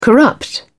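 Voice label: "corrupt", British English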